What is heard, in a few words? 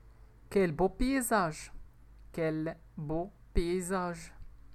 Quel beau paysage, French